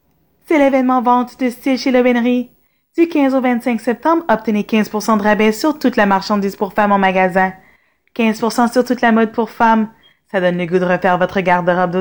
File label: french voiceover